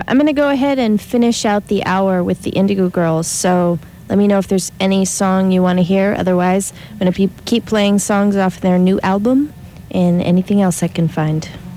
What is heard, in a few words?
announcer